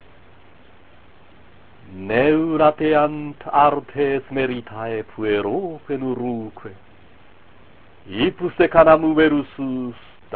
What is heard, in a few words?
Recitatio, latin